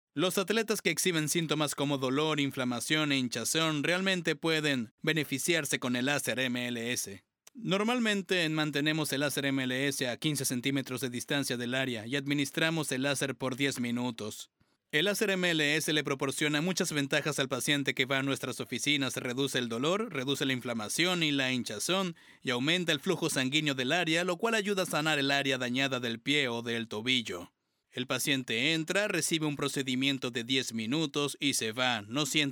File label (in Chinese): 西班牙语男 干音叙述